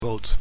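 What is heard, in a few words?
"boot", Dutch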